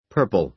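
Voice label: "purple", American English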